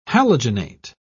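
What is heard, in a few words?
"halogenate", British English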